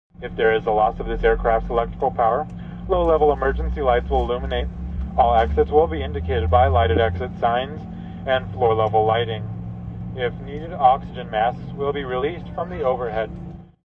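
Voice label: Steward Safety Instructions, Steward announcement regarding safety on plane; Stewardess Safety Instructions; Airplane Sound Effects; Vehicles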